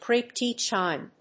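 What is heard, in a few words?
"crepe de Chine", English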